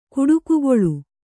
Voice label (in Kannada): "ಕುಟುಕುಗೊಳ್", Kannada